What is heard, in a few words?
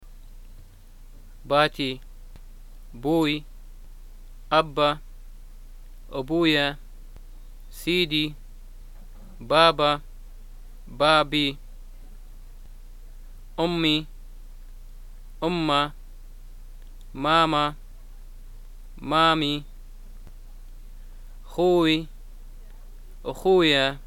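family, Libyan arabic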